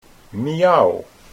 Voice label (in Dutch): "miauw", Dutch